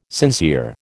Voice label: "sincere", American

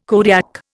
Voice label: "Kodiak", Indian

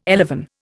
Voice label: "elevon", Indian

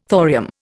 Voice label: "thorium", Indian

